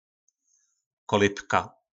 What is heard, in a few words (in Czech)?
"Kolybka", Slovník nářečí; Kolébka